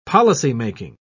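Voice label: "policymaking", British English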